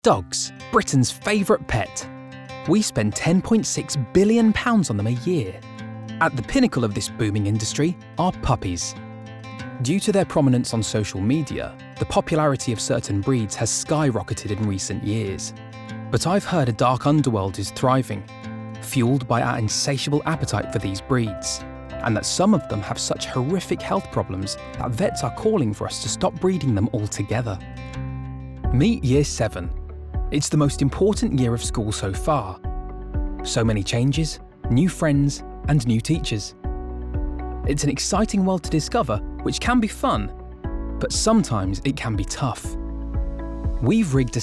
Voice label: DOCUMENTARY